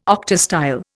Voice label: "octastyle", Indian

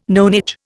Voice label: "nonage", Indian

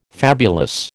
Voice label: "fabulous", American

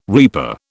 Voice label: "reaper", British

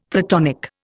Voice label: "Platonic", Indian; Dictionary

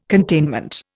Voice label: "containment", Indian

